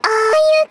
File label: "ayu" A5 D#5; 音MAD; Slow Start